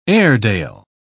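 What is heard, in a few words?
"airedale", British English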